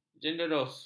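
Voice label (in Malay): "jenderus", Malay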